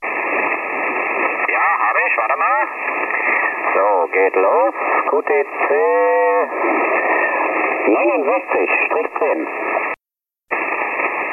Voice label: ssb, contest; beginner